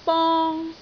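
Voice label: "bong1", Cantonese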